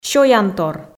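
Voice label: "сёянтор" Komi, female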